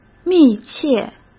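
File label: "密切", Mandarin Chinese; chinese-flashcards; hsk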